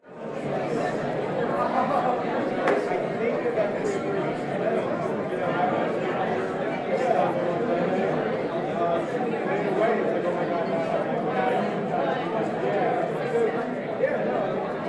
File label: Crowd talking in public area